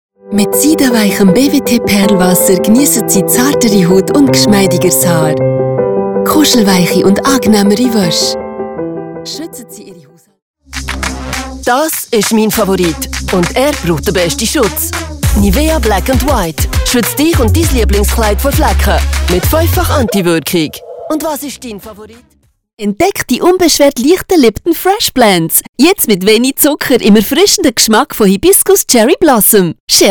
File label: Commercials Swiss German